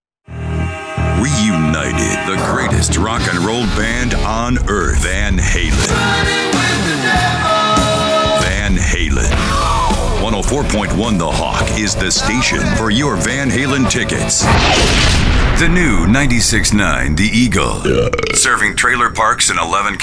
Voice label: radio men; radio imaging